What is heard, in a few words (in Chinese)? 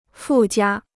"附加", Chinese